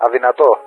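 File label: "unable", Greek; Wingman; Speech; DCS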